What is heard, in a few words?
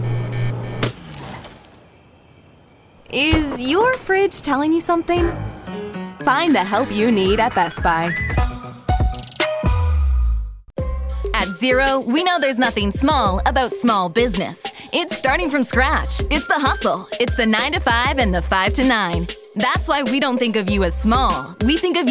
commercial women